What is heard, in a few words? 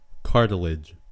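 "cartilage", US English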